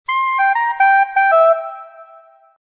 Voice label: english solo